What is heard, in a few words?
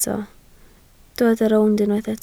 "rownd", Welsh